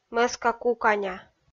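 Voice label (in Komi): "мӧска-куканя", Komi, female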